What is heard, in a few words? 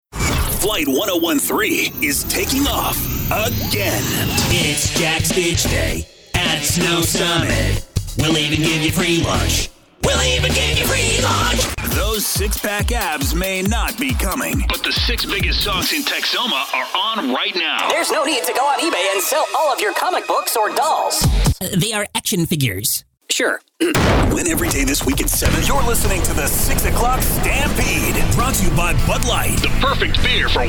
RADIO IMAGING